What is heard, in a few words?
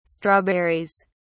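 "strawberries", English, British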